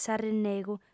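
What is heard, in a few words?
"s'arrinnegu", female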